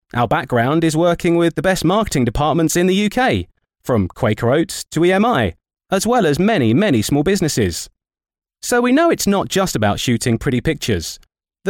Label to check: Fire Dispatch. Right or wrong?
Wrong